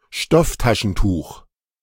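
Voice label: "Stofftaschentuch", German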